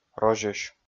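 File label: "розьӧсь", Komi, male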